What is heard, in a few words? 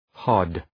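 "hod", English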